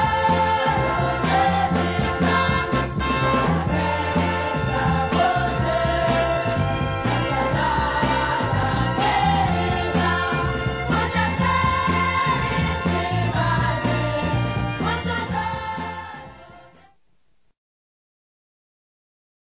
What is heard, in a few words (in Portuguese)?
Neto Criança Feminino, Aniversário Infantil; Telemensagens